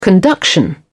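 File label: "conduction", British English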